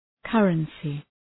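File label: "currency", English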